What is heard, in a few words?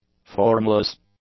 "formless", English; adjectives